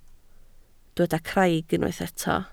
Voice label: "craig", Welsh